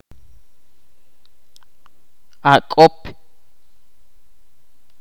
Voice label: "pasto" in Ch'orti'